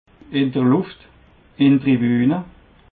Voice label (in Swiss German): "luft", alsace